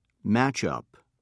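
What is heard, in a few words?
"matchup", British English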